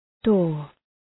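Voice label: "door", English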